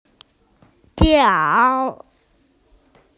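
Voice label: "niao3", Mandarin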